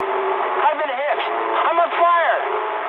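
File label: English on fire mood med; War Thunder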